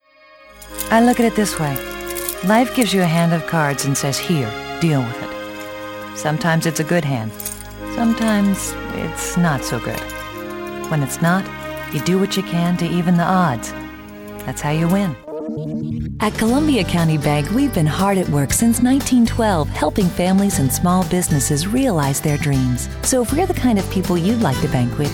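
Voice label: female, American English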